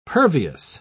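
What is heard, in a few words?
"pervious", British English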